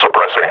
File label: suppressing, combine grunt; Half Life Model Pack